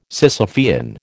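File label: "Sisyphean", American English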